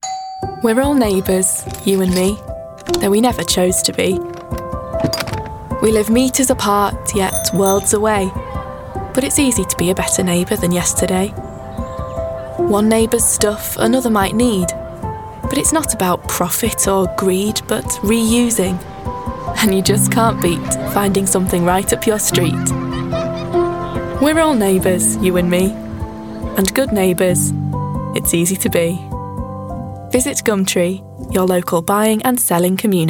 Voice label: Commercial